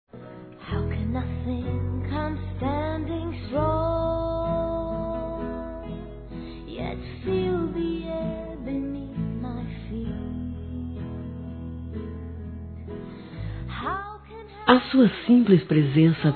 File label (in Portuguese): AVÓ F; Aniversários; Aniversários diversos; fem